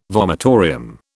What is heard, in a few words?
"vomitorium", British